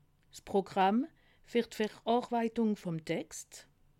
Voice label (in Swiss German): "programm", alsace